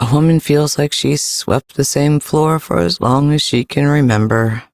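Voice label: Ambient Female Sweeper, Dialog; Duviri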